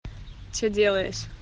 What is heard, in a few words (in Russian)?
jenskie golosovye sms